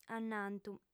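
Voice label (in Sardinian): "annantu", lingua sarda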